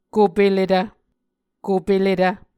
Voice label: "kopeletv", Muscogee